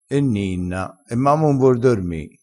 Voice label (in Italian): "e ninna", crespinese